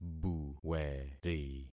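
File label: "buweri", concatenated syllables; Affixing experiment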